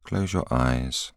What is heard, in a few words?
voiceover